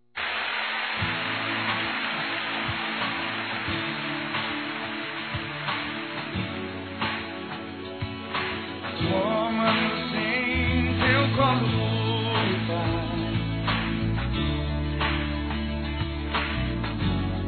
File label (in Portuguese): Aniversário Filho Evangelico Feminino; Aniversários